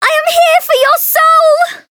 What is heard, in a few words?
chant; ilulu; variable chant